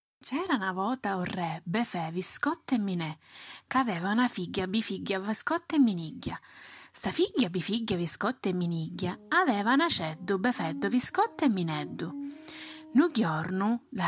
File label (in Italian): dialetto Sicilia; Notte dei Racconti 2020